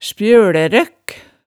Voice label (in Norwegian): "spjølærøkk", hemsedalsmaalet